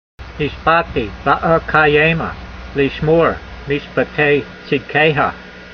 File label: voice, hebrew language; Psalm 119:106; neeshba'tee va'a'ka'yayma, leeshmor meeshpetay tseedkeyha